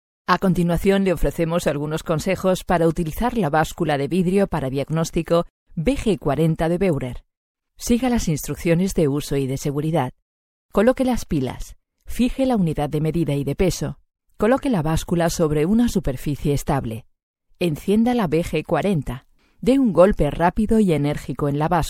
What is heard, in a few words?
es elearning; beurerbg40